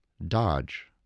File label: "dodge", spelling